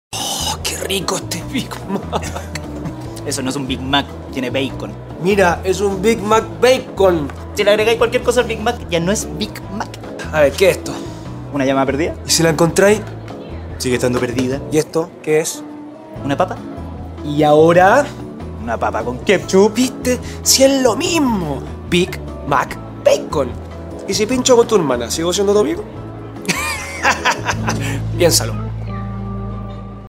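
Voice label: Latin American Spanish Commercial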